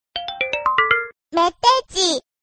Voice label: Japanese Message